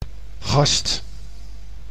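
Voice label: "gast", Dutch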